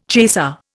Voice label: "chaser", Indian